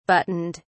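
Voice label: "buttoned", English, British English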